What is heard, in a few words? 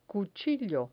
"cuchillo", Spanish